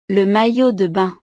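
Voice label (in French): "le maillot de bain", French; ropa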